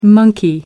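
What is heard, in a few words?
"monkey", English, British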